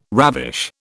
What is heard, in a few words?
"ravish", British